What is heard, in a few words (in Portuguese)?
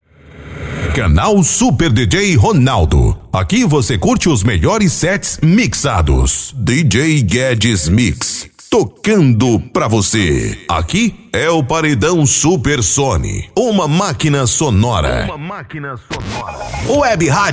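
♂ locutor, vozes para vinhetas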